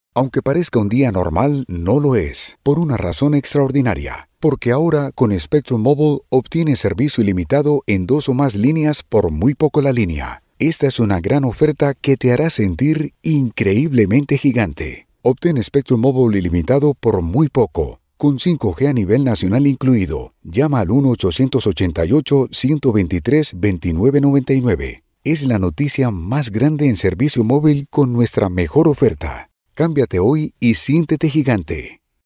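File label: Male Spanish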